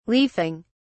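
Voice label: "leafing", English, British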